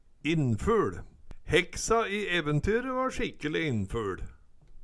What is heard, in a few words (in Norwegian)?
innfuL, Numedalsmål; eventyre va sjikkeli innfuL